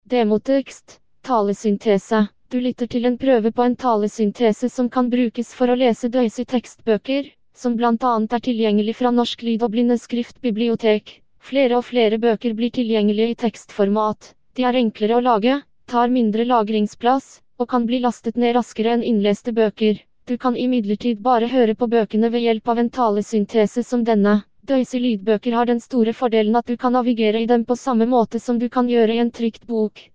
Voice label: norwegian Male; stine